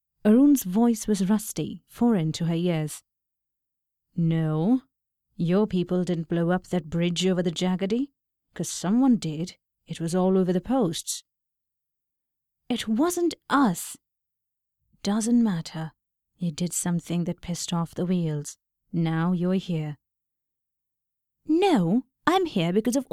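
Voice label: british english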